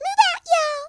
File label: orders, Southern Belle; speech